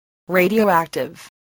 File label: "radioactive", english